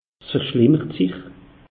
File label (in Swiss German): "verschlimmert", alsace; meteo